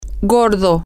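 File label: Spanish, female; fat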